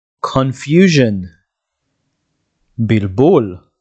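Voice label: "confusion" English, "בלבול" Hebrew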